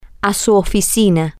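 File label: your office, Spanish, female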